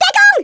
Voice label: TAKE COVER, Speech, German; Worms Armageddon